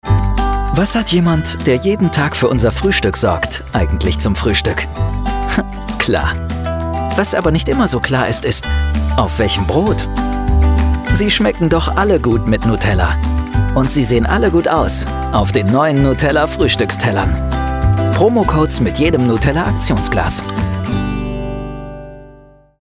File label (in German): TV Spot; Nutella